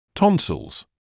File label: "tonsils", medical English